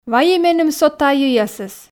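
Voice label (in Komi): вайӧй меным со тайӧясӧс, Komi, female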